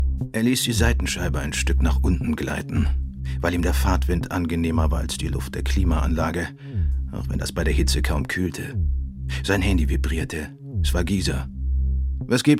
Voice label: Krimi Hörbuch; LOFT VOICES

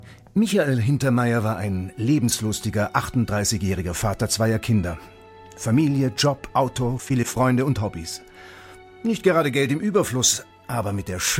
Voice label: erzaehlung notaufnahme; LOFT VOICES

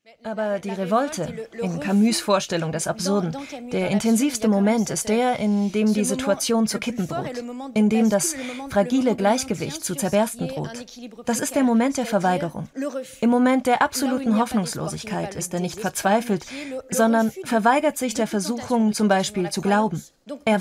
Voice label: Voice Over; Arte Philosophie; Logik des Absurden

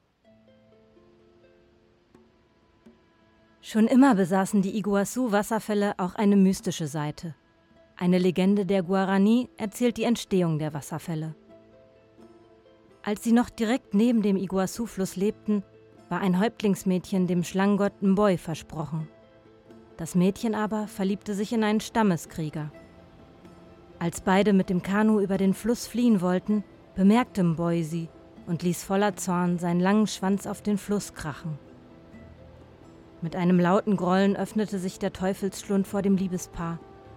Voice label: Doku; LOFT VOICES

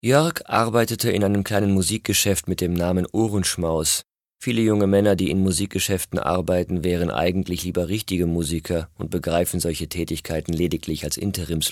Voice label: erzaehlung

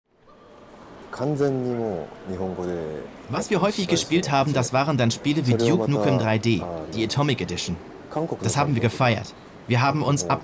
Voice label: Sprach Demo Voiceover

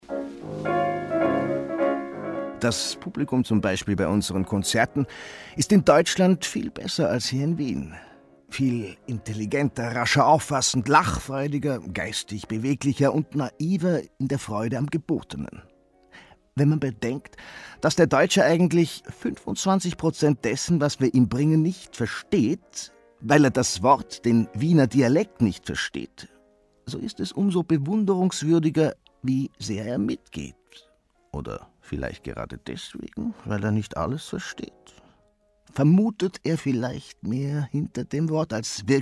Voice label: wienerisch; LOFT VOICES

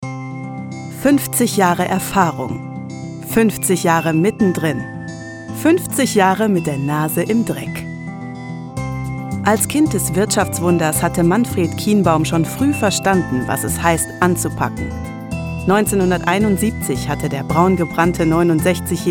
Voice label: Imagefilm Gaertnerei

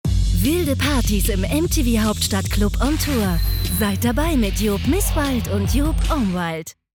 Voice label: tv spot werblich jugendlich straight sexy; mtv; joop

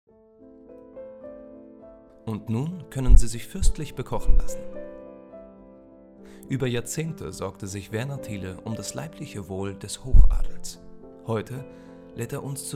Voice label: Dokumentation; Leibkoch der Bismarcks; LOFT VOICES

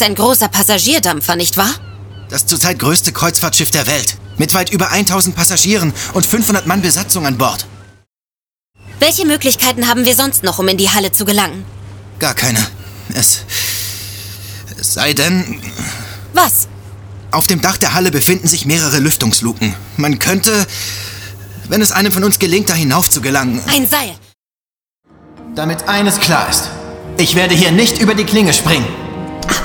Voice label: DEUTSCH MALE Hoerspiel; Maritim; Irene Adler; LOFT VOICES

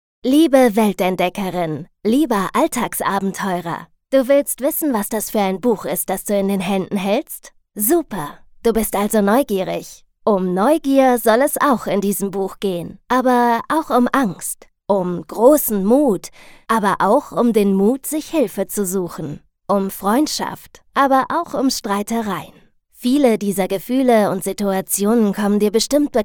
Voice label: Hoerbuch; Ein fantastisches Abenteuer; LOFT VOICES

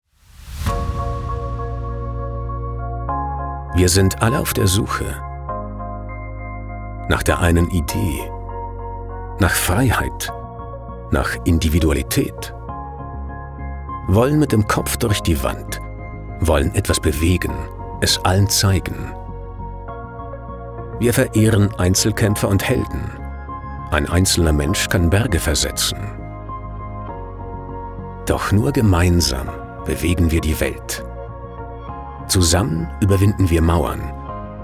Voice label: Imagefilm Konferenz

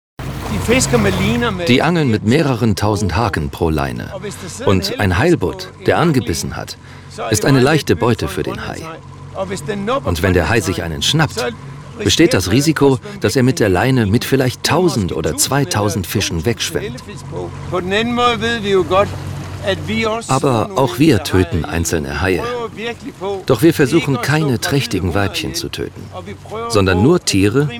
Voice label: DE Voiceover Groenland; LOFT VOICES

